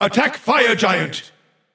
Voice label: vox attack; smite; ra; voicepack